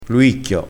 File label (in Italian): "luicchio", crespinese